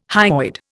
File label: "hyoid", Indian